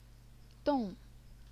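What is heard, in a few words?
"dong", fourth tone, Chinese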